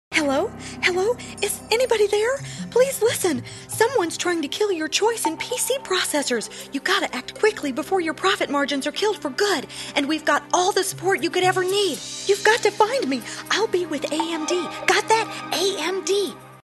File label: Whisper, Commercial; Help PC